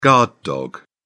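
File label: "guard dog", British English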